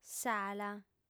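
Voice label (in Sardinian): "sala", female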